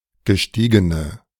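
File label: "gestiegene", German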